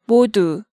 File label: "Modu", Hangeul sounds